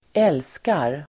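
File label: "älskar", Swedish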